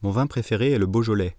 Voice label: French Sentence